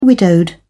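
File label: "widowed", British English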